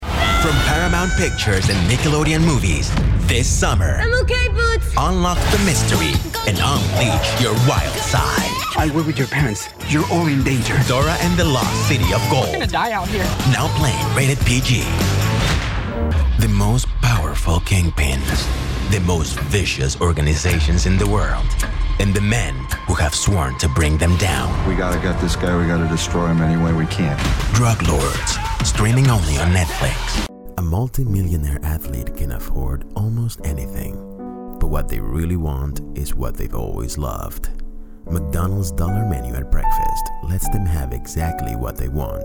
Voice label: English